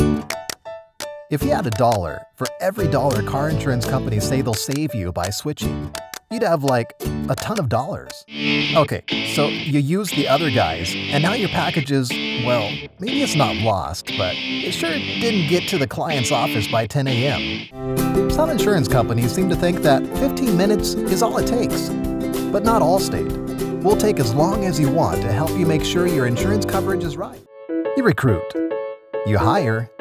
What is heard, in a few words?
Conversational